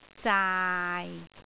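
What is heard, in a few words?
"zaai6", Cantonese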